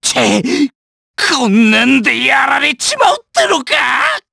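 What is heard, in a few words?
Vox Dead, Japanese; kingsraid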